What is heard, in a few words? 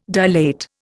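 "dilate", Indian English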